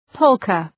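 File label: "polka", English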